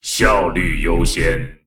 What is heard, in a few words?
Male Mech; Play; zh